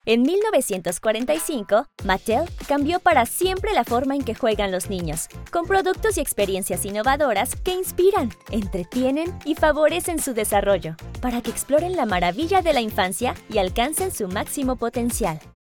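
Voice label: female, Mexican, neutral Spanish Latin American corporate; mattel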